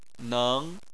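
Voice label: "neng", Chinese, second tone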